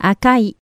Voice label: "akai", Japanese; red